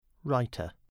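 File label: "writer", English word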